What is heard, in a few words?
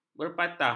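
"berpatah", Malay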